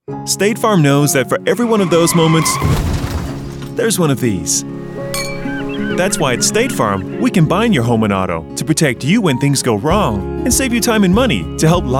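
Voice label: American commercial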